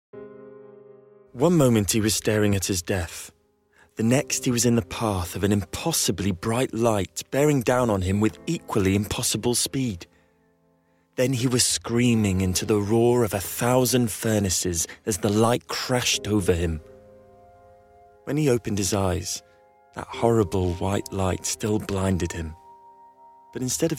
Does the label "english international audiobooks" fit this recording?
Yes